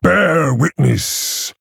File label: Male Orc Attack